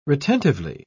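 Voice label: "retentively", English, British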